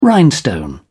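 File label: "rhinestone", British English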